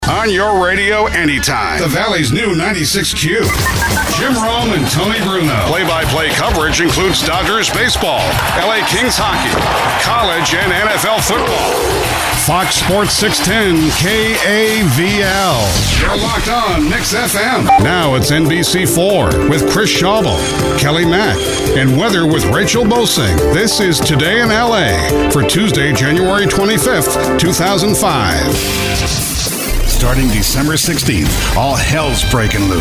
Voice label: Imaging Male

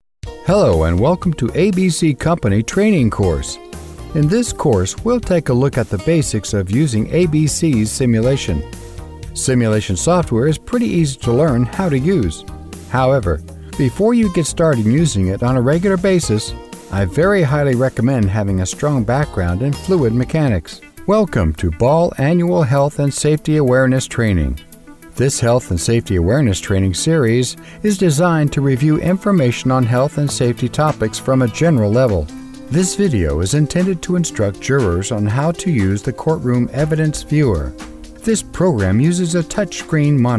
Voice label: eLearning Male

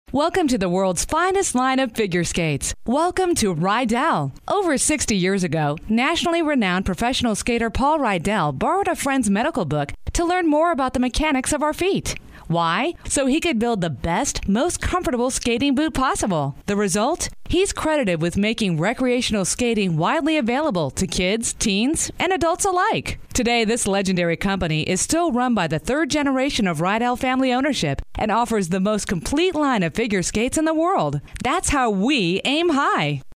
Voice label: Narration, Female

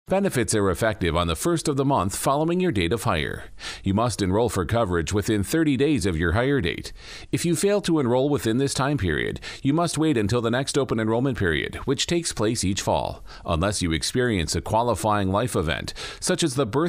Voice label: Narration Male

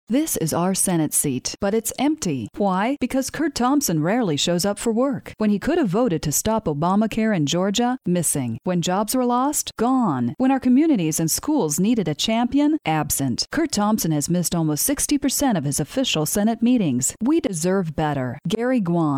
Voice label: Political Female

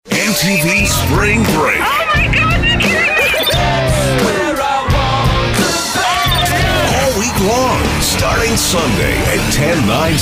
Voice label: Imaging Male

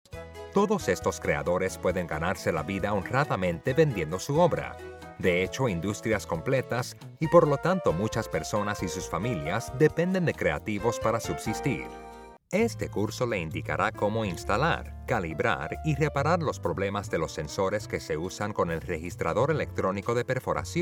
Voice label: Foreign Voices Spanish Male Narration

